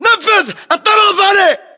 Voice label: location secondflr window, localized english battlechatter